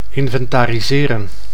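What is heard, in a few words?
"inventariseren", Dutch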